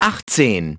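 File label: "eighteen", English; iOS 4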